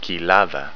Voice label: Valley, Greek

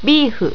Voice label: "bifu", japanese

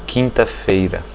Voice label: thursday, portuguese

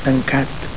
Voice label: "tancat", Catalan

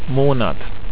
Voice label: "monat", German

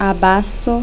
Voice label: abasso, interlingua

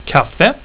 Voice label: "kaffe", swedish

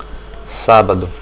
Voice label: saturday, portuguese

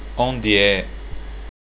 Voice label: where, portuguese

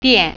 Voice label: shop, mandarin

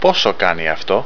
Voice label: How much does this cost, Greek

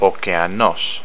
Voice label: Ocean, Greek

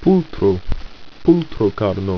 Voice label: "pultro", ido

